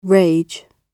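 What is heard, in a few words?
"rage", British English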